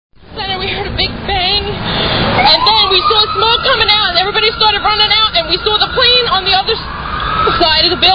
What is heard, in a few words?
911 Female Witness I Don't Know Jumping Out Windows